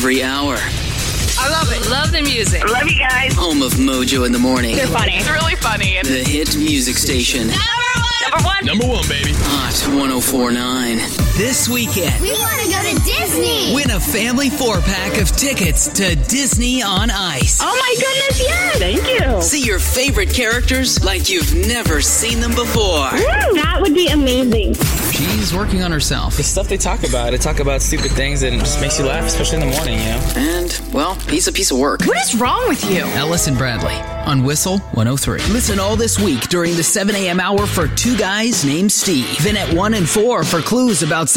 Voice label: Radio Imaging